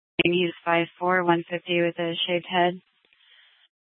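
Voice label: police dispatch radio, scanner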